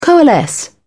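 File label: "coalesce", English, British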